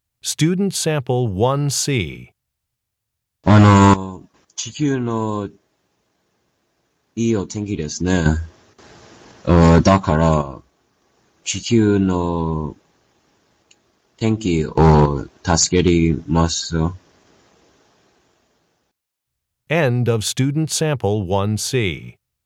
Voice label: japanese conversation